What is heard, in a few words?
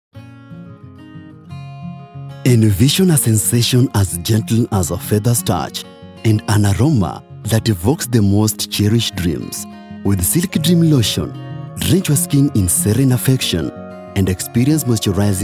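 Kenya Male voice over Soft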